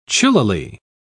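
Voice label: "chillily", British English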